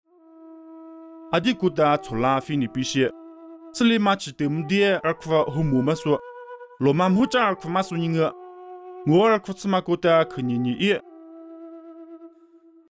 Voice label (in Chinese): 凉山彝语; 地中海腓立比